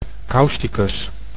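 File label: "causticus", Dutch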